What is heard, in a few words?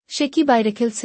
Is she playing outside? Bangla; Mixed Sentences